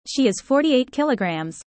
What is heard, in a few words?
user fast; how tall